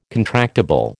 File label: "contractable", American